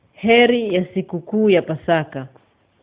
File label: easter swahili